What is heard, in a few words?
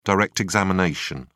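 "direct examination", British English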